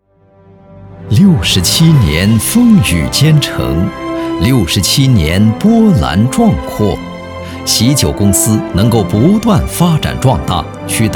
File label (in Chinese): 大气端庄供应商大会颁奖样音